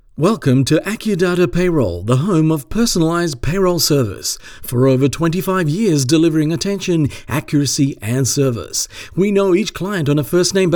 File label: Upbeat Corporate Welcome